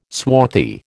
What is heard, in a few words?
"swarthy", American